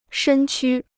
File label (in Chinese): "身躯", Chinese